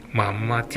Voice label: "gowan", minamiyamagata

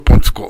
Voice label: "bontuko", minamiyamagata

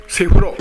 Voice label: "sefuro", minamiyamagata; 家に備え付けてあるお風呂（五右衛門風呂などではなく）。

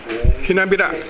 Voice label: "henabera", minamiyamagata

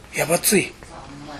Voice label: "yabatui", minamiyamagata

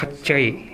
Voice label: "kaccha", minamiyamagata

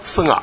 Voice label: "suga", minamiyamagata

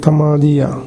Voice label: "tamariya", minamiyamagata

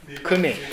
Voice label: "kune", minamiyamagata

